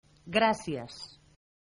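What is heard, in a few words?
"thanks", Catalan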